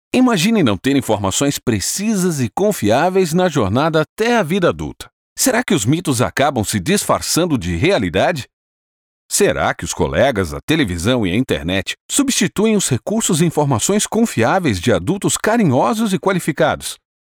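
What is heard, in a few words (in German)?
Portuguese, Freundlich; Fremdtake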